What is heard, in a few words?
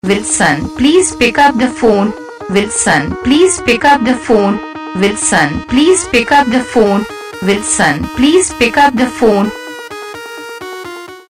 pick up the phone saying, Ringtones